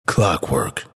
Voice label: announcer pick clockwerk; dlc bastion